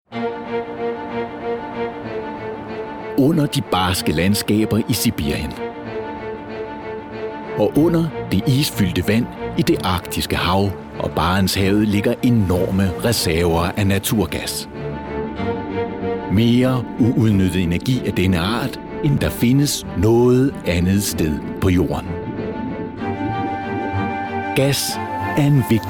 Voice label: voiceover, deutsch maenner; sprecher

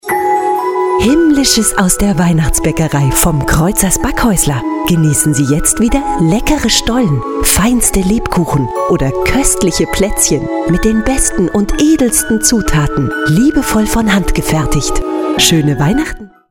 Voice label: weihnachtsspot, sprecher deutsch frauen

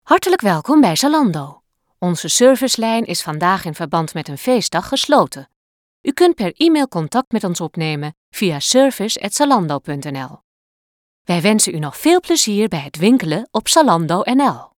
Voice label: niederlaendisch telefon; sprecher

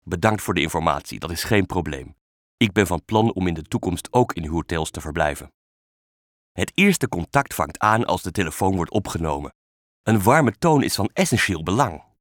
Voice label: niederlaendisch elearning; sprecher

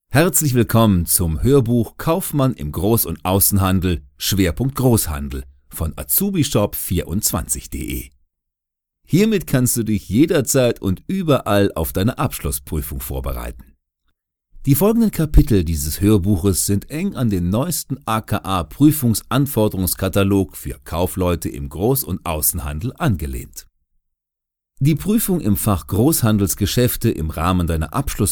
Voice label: Hoerbuch

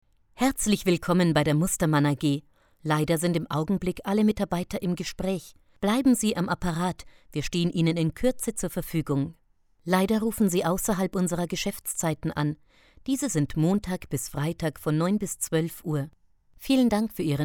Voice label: Telefon; sprecher

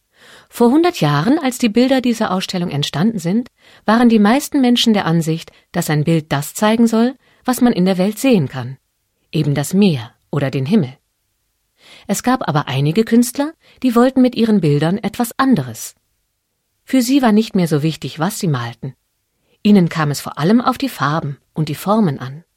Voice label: erklaerfilm, deutsch frauen; sprecher

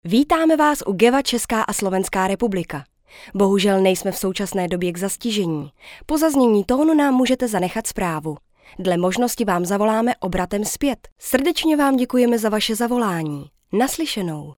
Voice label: cz telefon, tschechisch

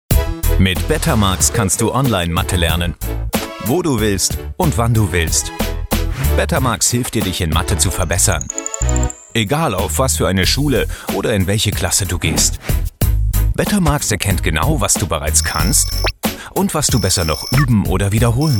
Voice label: de werbung, deutsch maenner; sprecher

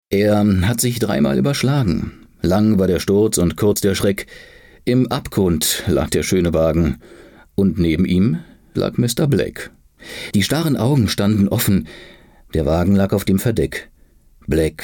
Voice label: de gedicht, deutsch maenner; sprecher

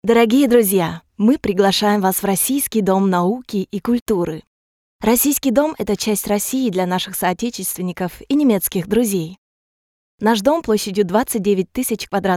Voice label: russisch elearning

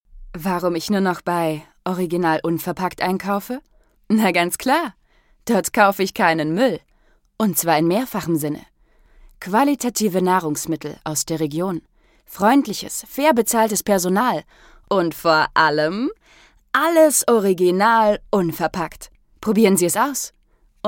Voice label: werbung, deutsch frauen

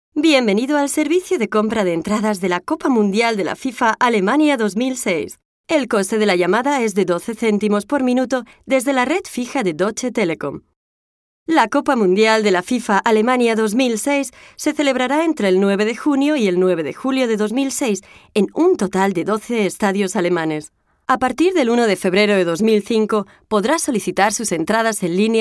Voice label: telefon, spanisch

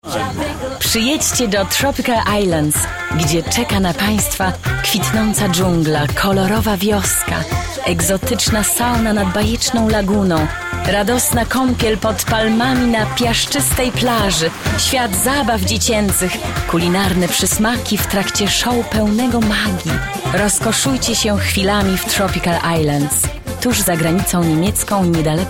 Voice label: pl werbung, polnisch; sprecher

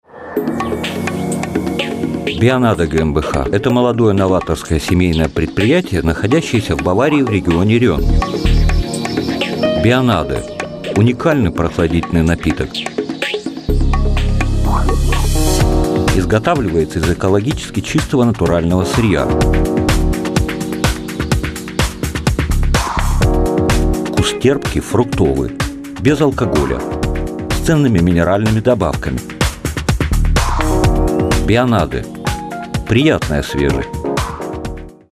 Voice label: ru werbung, sprecher russisch

